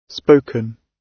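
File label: "spoken", British English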